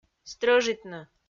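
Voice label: "стрӧжитны", Komi, female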